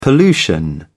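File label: "pollution", British English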